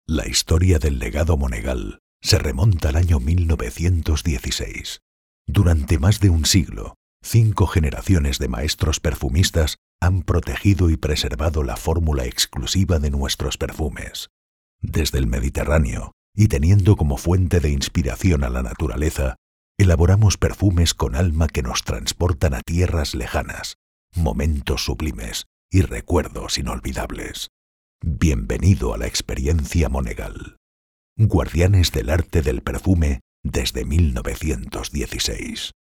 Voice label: spanish corporate videos